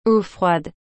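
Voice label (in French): "eau froide", French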